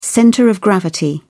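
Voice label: "centre of gravity", British English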